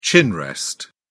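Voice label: "chin rest", English, British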